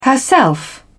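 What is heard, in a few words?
"herself", British English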